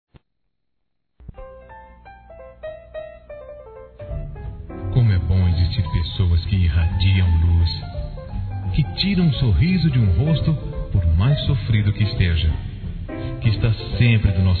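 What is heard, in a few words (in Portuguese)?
para amigo, masculino; Amizade; Telemensagens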